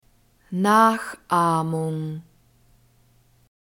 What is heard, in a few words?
"nachahmung", german